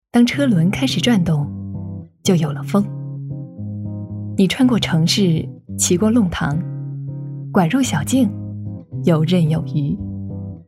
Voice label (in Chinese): 广告 清新